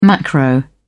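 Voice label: "macro", British English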